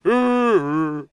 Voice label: male NPC; server-nalc; mobsounds